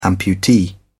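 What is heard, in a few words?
"amputee", British English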